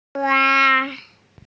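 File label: "zhua1", Mandarin Chinese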